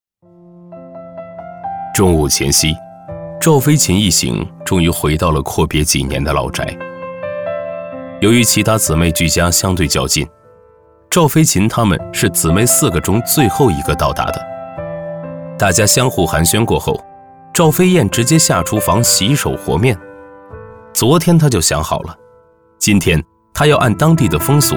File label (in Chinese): 男, 纪录片, 旁白, 舒缓讲述